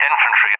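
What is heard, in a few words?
"infantry", English, UK; DCS; Allied Flight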